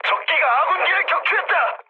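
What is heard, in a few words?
Korean aircraft destroyed mood medium; War Thunder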